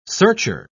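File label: "searcher", British English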